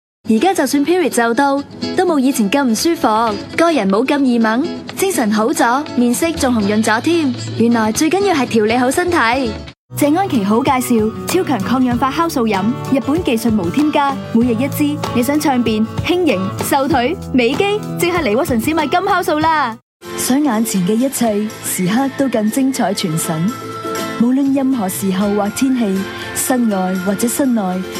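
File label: Canto TVC, Cantonese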